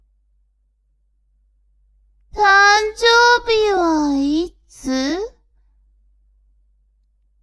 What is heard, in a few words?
woman